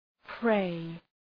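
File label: "prey", English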